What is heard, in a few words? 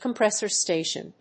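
"Compressor station", English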